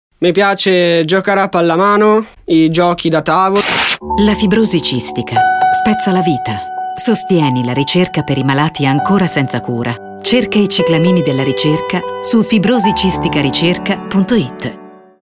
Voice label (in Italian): Spot radio; Fondazione Ricerca Fibrosi Cistica